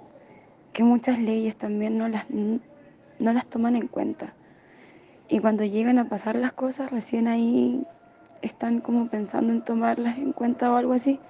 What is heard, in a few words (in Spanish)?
mujer